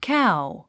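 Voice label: "cow", English; 発音 káu カァウ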